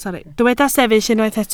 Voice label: "sefyll", Welsh